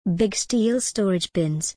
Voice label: en gb big steel storage bins